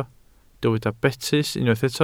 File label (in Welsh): "betys", Welsh